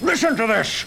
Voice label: listen to this male; Voice; introductions